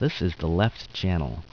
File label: Left Speaker Voice